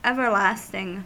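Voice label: "everlasting", US English; pronunciations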